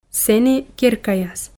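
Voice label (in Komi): сэні керкаяс, Komi, female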